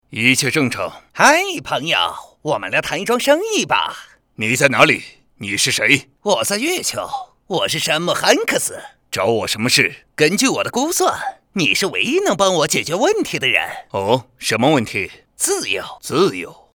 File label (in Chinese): 译制片角色配音